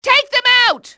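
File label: "take them out", english, female; XBOX; enemy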